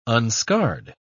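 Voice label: "unscarred", English, British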